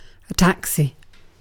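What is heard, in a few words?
"taxi", British English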